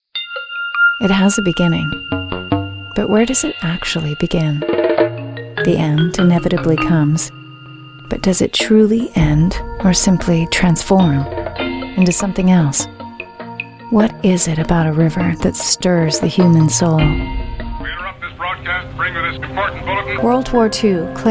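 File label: american us female narration; english; voice demos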